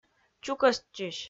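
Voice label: "чукӧстчысь", Komi, female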